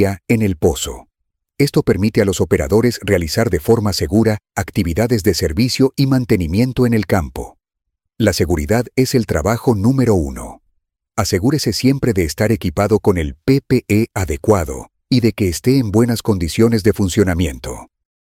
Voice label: How To Series Rod Lock Operation Spanish; ChampionX; OLT